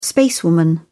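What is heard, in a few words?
"spacewoman", English, British English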